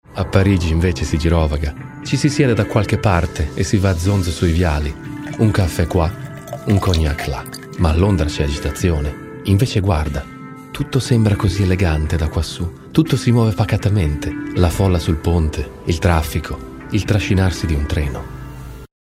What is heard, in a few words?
Italian; Elegance